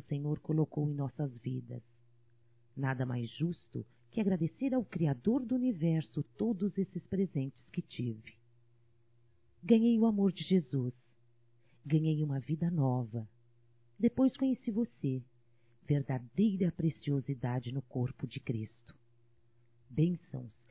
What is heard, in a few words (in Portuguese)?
NATAL IRMãO, Telemensagens, Evangelico, fem; Telemensagens 2017